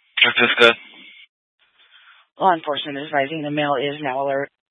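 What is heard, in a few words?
Fire Dispatch, scanner audio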